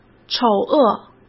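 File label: "丑恶", Mandarin Chinese; chinese flashcards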